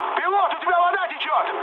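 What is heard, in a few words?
Russian damaged water mood med, speech; War Thunder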